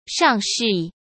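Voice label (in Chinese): "上市", Mandarin Chinese; hsk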